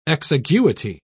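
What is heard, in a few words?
"exiguity", British English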